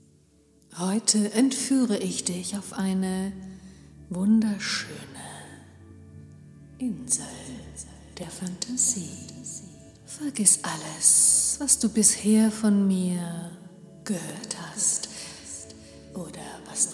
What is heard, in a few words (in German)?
erotische Hypnose; Ohrgasmus; Elektrisch; Die Herrin erwartet dich in ihrem Dungeon.